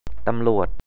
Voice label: "police", Thai